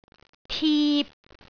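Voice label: "tip3", Cantonese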